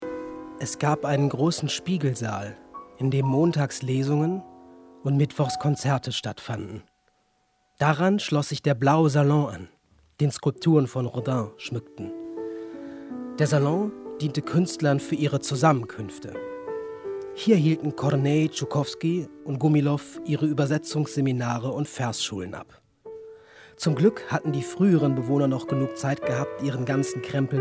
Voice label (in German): radiofeature